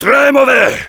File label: Heavy negative vocalization, fr; "Très mauvais !"